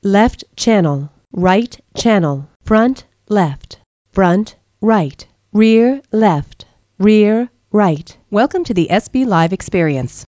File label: pshift female